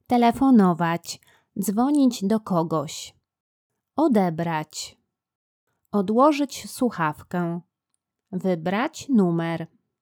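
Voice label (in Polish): Rozmowa telefoniczna zwroty, Polish; telephoner et repondre au telephone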